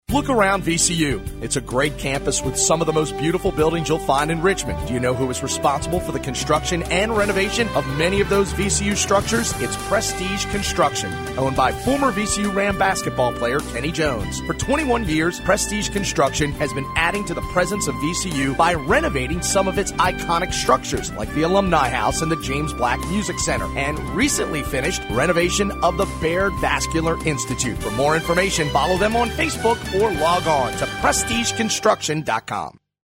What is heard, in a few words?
radio spot; prestige